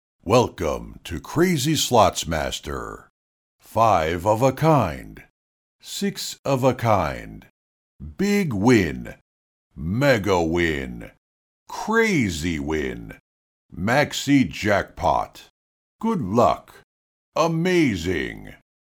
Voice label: 游戏配音稿件

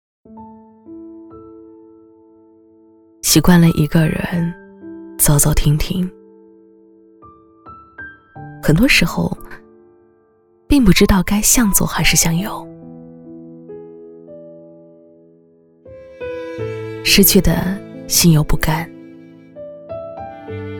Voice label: 女 走心情感 习惯了一个人

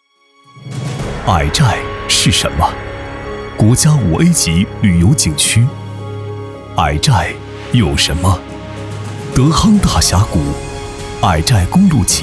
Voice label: 男 纪录片; 矮寨

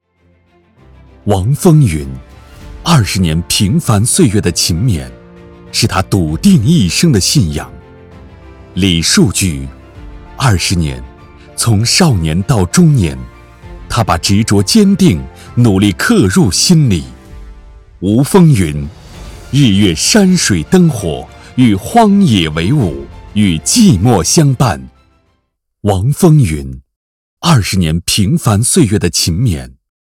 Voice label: 男 人物专题 积极 赞扬 沉稳 温暖

